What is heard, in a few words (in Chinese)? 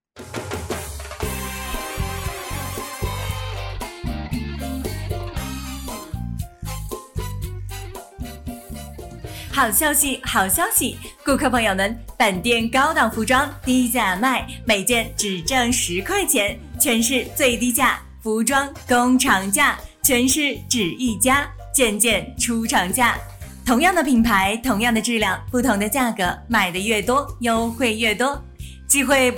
广告